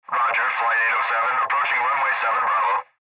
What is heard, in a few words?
Pilot Voice Runway